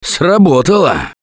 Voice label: pain relief first aid, russian, Survivor Voice; Left 4 Dead 2; Gambler